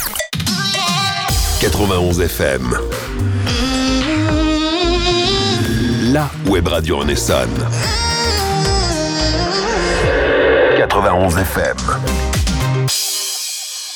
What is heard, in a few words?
Jingle Slogan; Transitions